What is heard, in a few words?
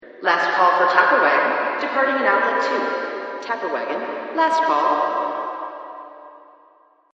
announcer